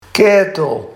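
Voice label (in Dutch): "kaetel", Barghs dialect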